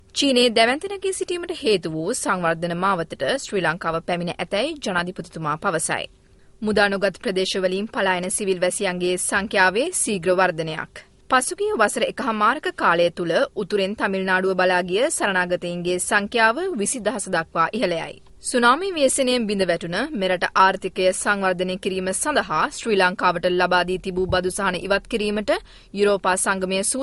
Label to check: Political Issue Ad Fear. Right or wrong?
Wrong